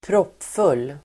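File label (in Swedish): "proppfull", Swedish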